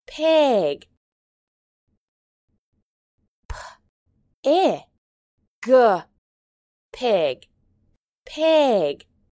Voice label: "pig", English